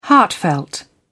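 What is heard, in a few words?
"heartfelt", British English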